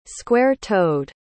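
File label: "square-toed", English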